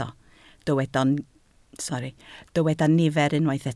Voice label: "nifer", Welsh